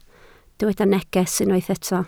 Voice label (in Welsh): "neges" Welsh